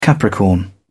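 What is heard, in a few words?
"capricorn", English, British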